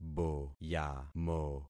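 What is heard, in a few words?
"boyamo", concatenated syllables; Affixing experiment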